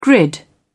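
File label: "grid", British English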